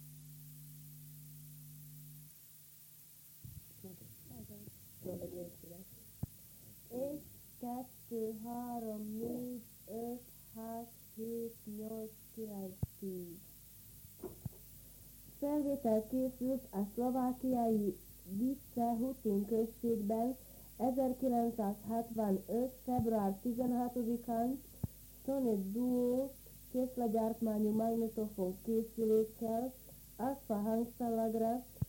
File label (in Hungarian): nyelvjaras gyujtesek